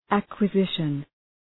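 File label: "acquisition", English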